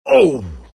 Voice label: tusk pain